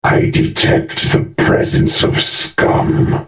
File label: attack, en US; Urgot